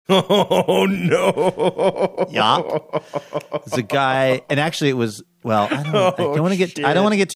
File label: laughing saying oh no oh shit